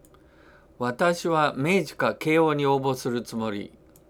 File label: dialogue line, japanese